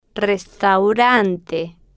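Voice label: "restaurante", slow, spanish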